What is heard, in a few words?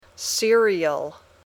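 "cereal", English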